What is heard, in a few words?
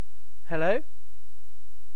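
"hello", British English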